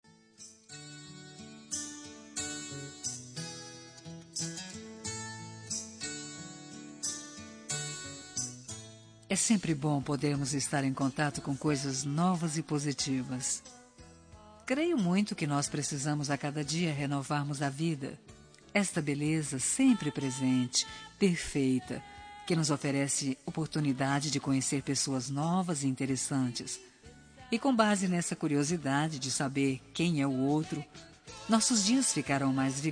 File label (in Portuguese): Telemensagem Resposta – Voz Feminuna; Sim quero te conhecer